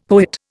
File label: "poet", Indian; Dictionary